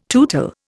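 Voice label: "tootsy", Indian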